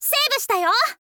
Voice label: info girl